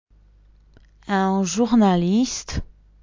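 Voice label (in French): "un journaliste", French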